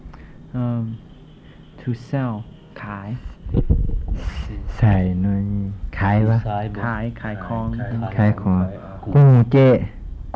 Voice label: Phunoi

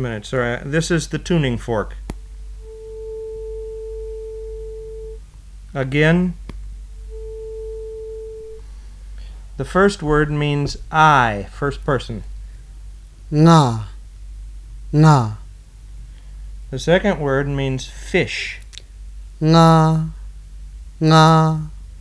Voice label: Kachin

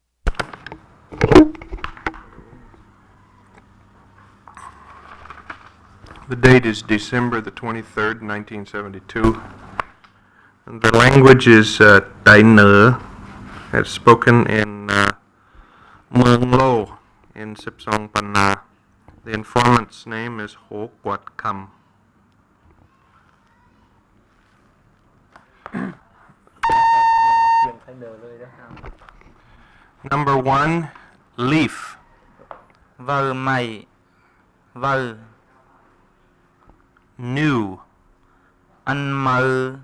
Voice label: Tai Nua

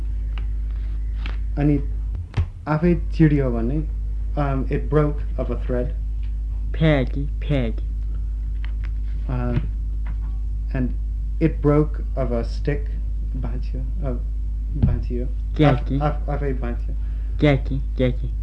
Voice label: Kham Comparative Word list

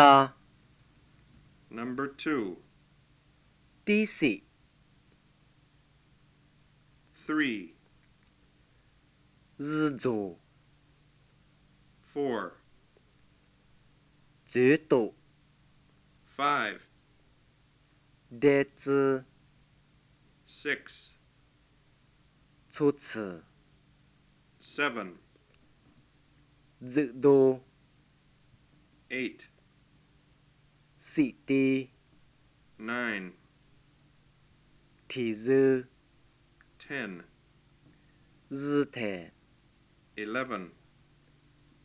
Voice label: Lisu

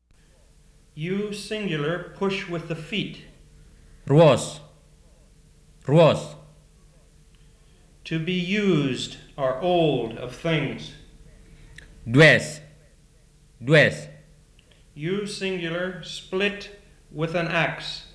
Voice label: BIAK

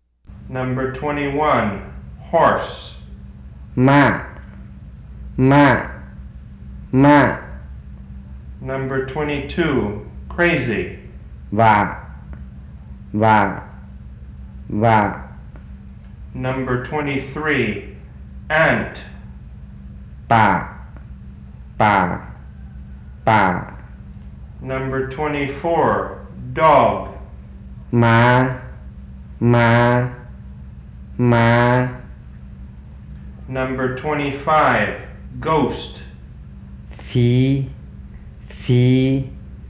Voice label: Black Tai

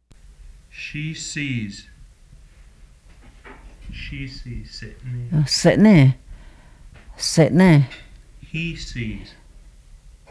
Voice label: Yuchi

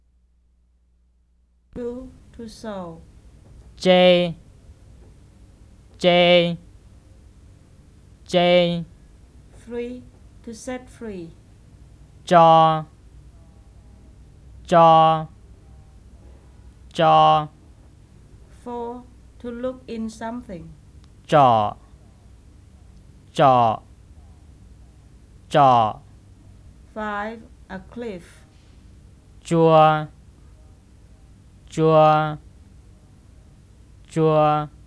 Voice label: White Miao; Nan Province

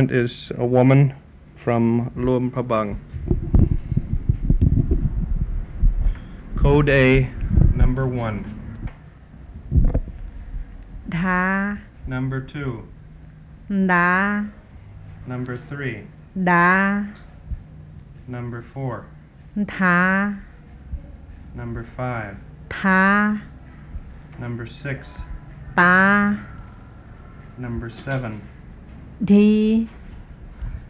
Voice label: WHITE MIAO

